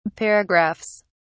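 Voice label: "paragraphs", British English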